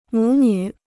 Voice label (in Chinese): "母女", Chinese